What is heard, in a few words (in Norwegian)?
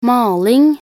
"maling", nb_NO